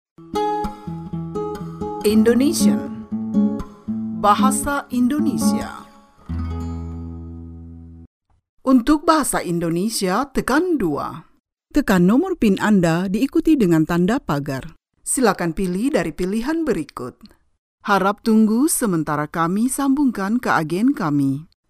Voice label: Indonesian

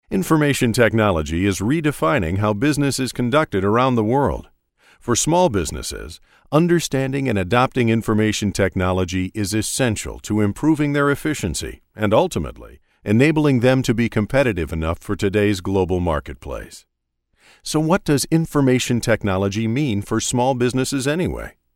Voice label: US English, voice talent

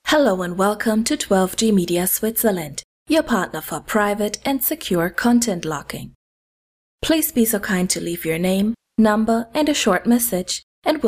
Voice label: English, voice talent

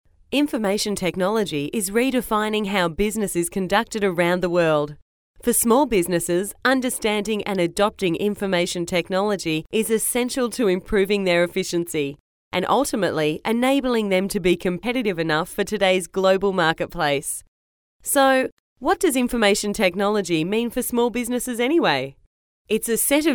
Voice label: Aussie English, voice talent

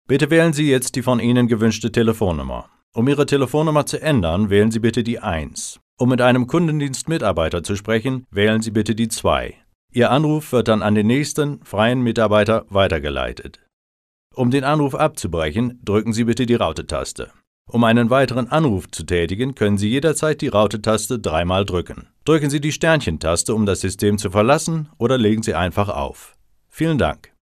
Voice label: German

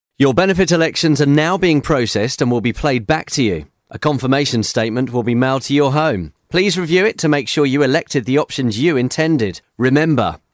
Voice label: UK English

